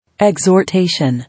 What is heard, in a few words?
"Exhortation", English